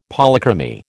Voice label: "Polychromy", American